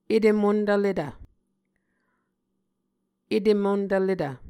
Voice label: "etemontvletv", muscogee